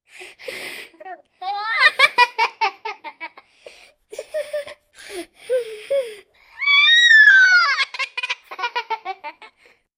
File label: a woman and her young